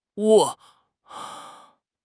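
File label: "窝", Mandarin Chinese; HSK6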